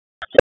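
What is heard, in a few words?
Police Spec Ops Tac, radio; Raleigh; wake; p25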